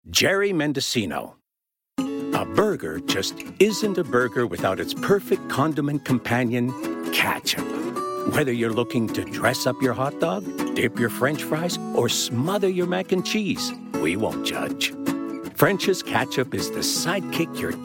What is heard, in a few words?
Commercial Demo